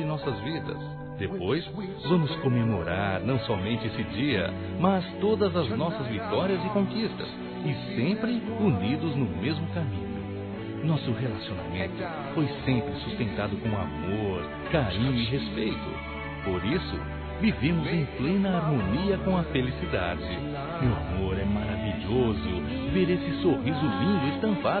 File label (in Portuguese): ANIV. ROMANTICO M